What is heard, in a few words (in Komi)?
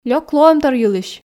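лёк лоӧмтор йылысь, Komi, female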